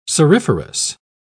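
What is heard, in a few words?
"cirriferous", British English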